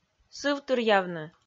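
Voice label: "сывтыръявны", Komi, female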